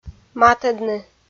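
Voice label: "матӧдны", Komi, female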